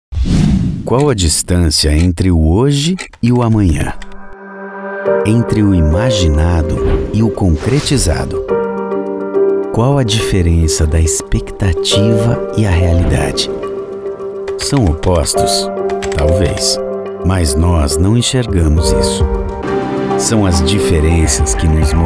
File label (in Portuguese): repertorio completo locutor